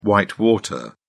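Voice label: "whitewater", British English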